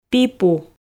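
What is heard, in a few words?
"пипу", Komi, female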